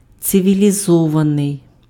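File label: "цивілізований", Ukrainian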